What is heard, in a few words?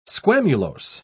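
"squamulose", English, British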